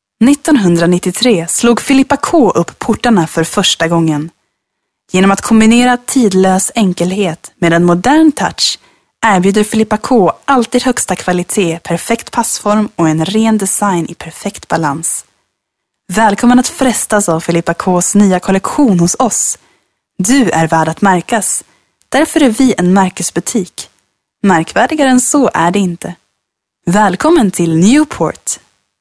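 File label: Swedish, female, corporate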